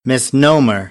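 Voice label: "misnomer", American English; AmericanBritish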